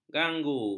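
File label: "ganggu", Malay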